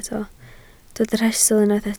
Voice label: "rhestl", Welsh